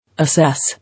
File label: "Assess", English